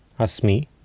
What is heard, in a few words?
"asmi", Sanskrit